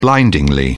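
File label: "blindingly", British English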